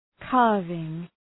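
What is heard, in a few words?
"carving", English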